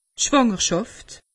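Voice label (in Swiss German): "schwangerschaft", Alsatian; medecine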